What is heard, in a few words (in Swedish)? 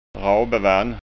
"rabevann", skanska ord; Danskvand; Sparkling water; BubbelvattenTyp Ramlösa